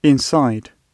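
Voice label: "inside", British English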